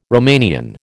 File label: "Romanian", American